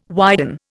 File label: "widen", Indian